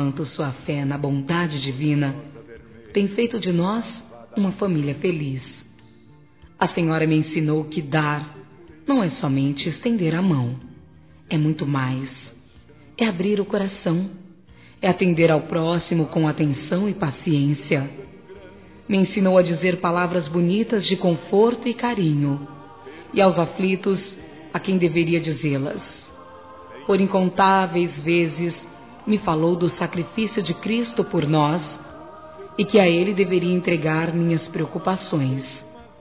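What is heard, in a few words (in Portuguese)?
Trilha ANIVERSÁRIO MÃE, Gospel, fem; Telemensagens; Telemensagens 2017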